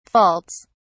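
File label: "faults", British English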